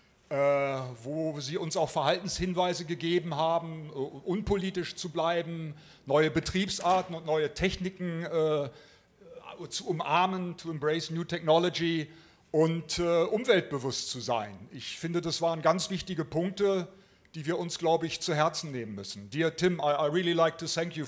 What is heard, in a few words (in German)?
Ueberleitung Deutsch